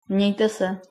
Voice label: goodbye, czech